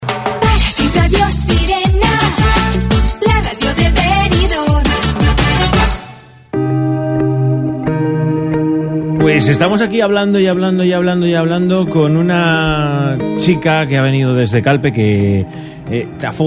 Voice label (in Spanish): entrevista radio; libro un viaje extraño de halloween; radio sirena COPE